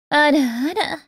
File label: ara ara girl